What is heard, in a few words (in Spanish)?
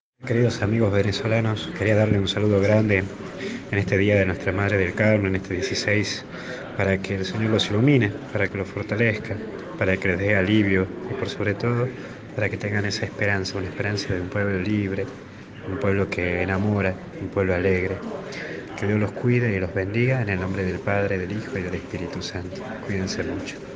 padre mensaje venezuela; dia la virgen del carmen